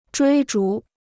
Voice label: "追逐", Chinese